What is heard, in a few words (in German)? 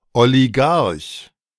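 "Oligarch", German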